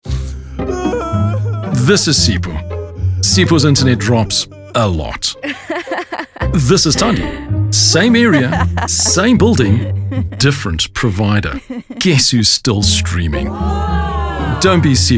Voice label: Medium Sell, voiceover